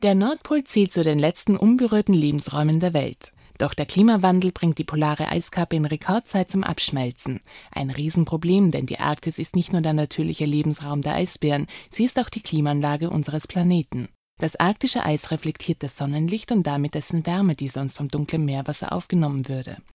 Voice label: doku

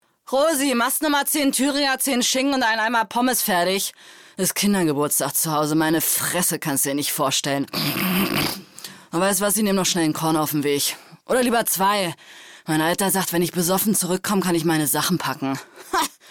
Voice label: synchron dialekt

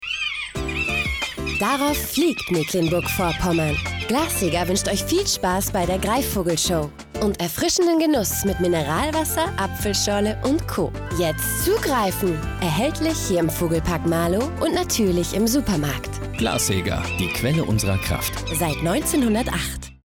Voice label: Radiowerbung; Glashaeger

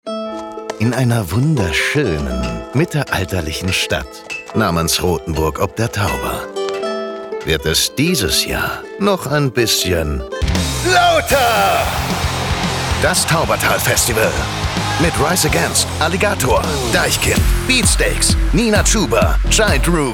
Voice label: Radiospot, Festival, German; Off; BigFM; Taubertal Festival 2024

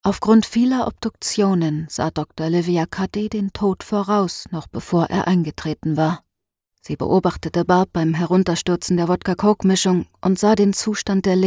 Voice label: Krimi Spurlos Erzaehlpart ruhig

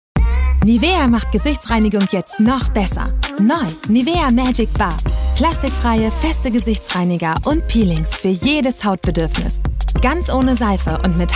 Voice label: TvWerbung; Nivea; Magic-Bar

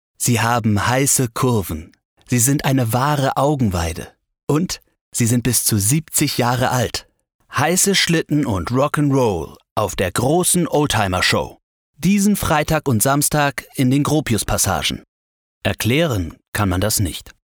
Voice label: Oldtimer; LOFT VOICES

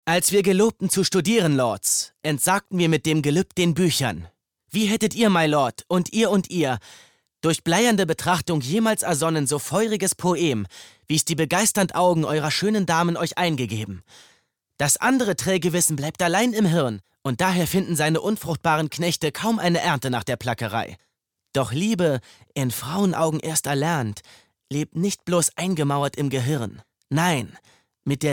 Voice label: synchron

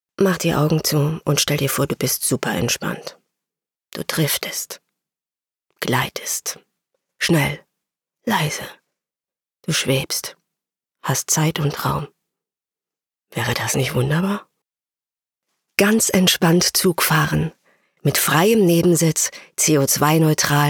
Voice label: WERBUNG

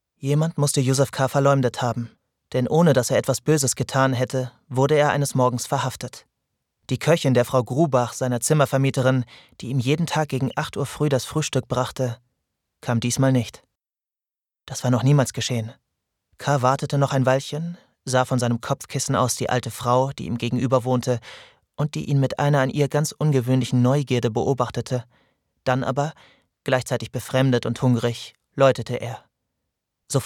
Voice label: hoerbuch; der prozess

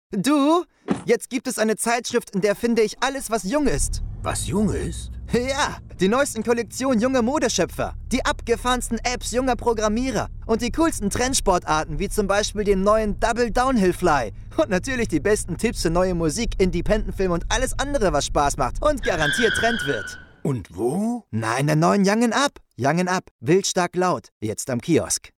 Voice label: Werbung Rolle

